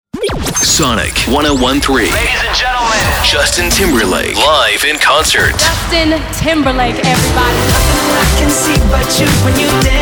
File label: CHR VO